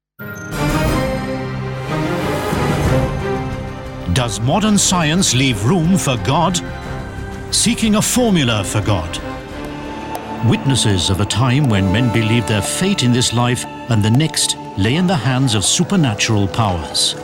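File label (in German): UK English Kommentar Freundlich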